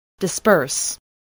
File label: "disperse", spelling